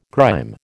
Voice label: "grime", American